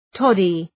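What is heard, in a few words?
"toddy", English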